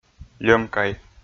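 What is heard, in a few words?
"льӧмай" Komi, male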